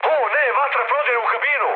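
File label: Serbian on fire mood med, speech; War Thunder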